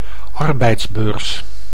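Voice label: "arbeidsbeurs", Dutch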